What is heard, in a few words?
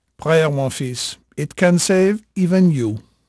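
French male, voice; Pirates Of The Caribbean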